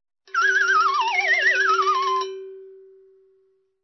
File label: CARTOON ACCENT